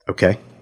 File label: "okay", expletives